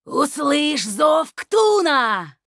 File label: Female Night Elf Play